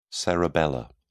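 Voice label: "cerebellar", British English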